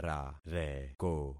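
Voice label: "rareko", concatenated syllables; Affixing experiment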